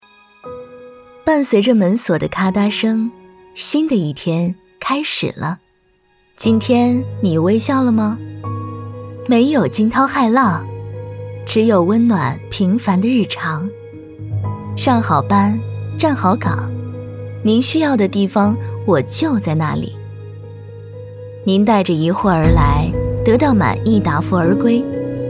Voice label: 宣传片温暖女号女播

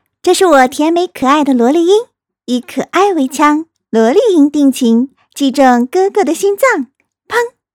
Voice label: 萝莉音

